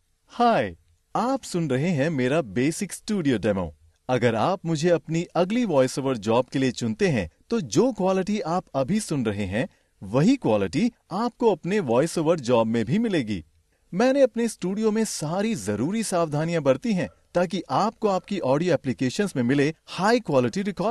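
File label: Hindi male; voice over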